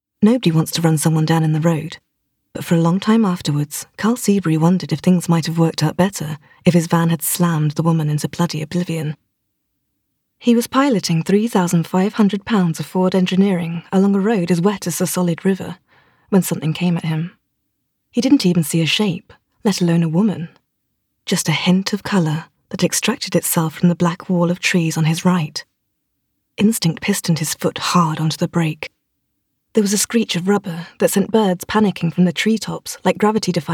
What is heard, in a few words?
narration audiobook vo